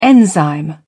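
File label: "enzyme", English, British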